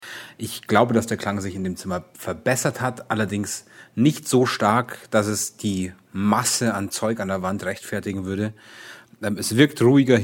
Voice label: this is my room echo with only the wave panels sound test german; elgato